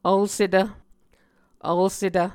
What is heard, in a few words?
"vlsetv", Muscogee